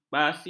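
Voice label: "basi", Malay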